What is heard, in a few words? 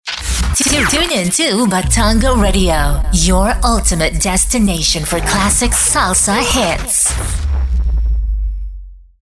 Tune in to Batanga Radio Your Ultimate Destination for Classic Salsa Hits, radio station jingles